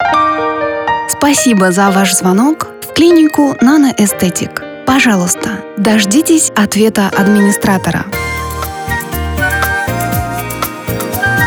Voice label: IVR voice